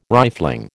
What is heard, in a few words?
"rifling", American